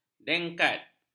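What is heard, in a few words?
"dengkat", Malay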